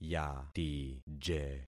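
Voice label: "yatije", concatenated syllables; Affixing experiment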